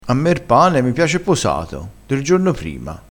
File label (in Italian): "posato", crespinese